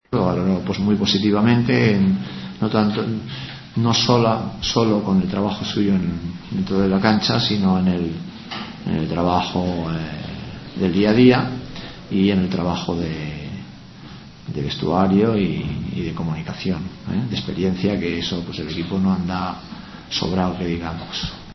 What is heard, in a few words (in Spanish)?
noticias